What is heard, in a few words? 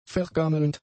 "vergammelnd", German; adjectives